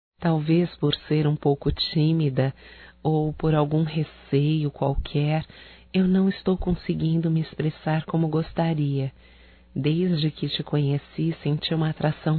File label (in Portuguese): CONQUISTA (F); Românticas